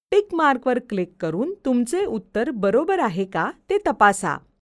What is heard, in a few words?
Click Check VO, Marathi